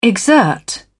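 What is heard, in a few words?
"exert", British English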